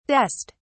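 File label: "dest", British English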